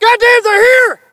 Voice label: spot; bf3